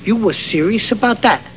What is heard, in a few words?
serious